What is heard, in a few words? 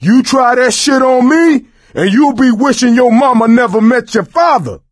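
team kill accident, voice, male; Left 4 Dead 2; coach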